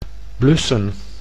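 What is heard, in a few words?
"blussen", Dutch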